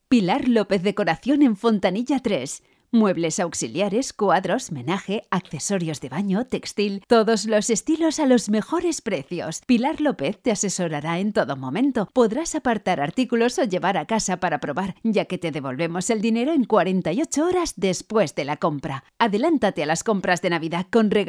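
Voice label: spanish female narration; voice demos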